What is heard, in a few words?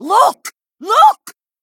Female Dwarf